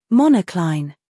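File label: "humor", British; monocline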